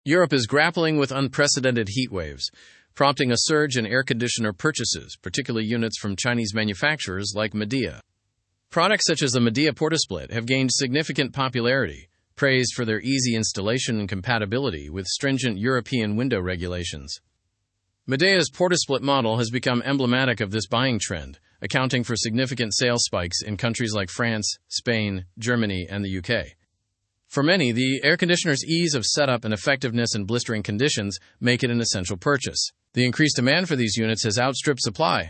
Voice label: learn english through news advanced; european heatwave drives surge in chinese ac sales